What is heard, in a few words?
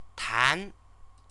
"tan", Chinese